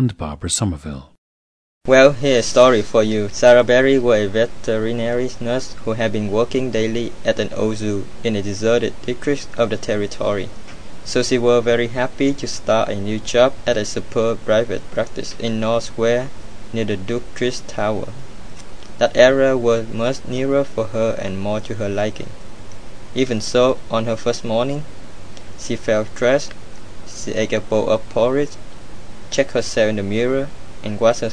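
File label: vietnam, International Dialects of English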